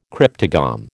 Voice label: "cryptogam", American